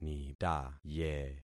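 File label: "nitaye", concatenated syllables; Affixing experiment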